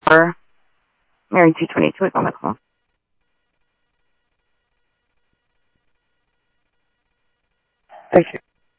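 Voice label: Sheriff West County, scanner audio trunk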